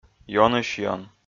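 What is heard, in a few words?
"ёнысь-ён", Komi, male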